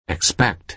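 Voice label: "expect", American English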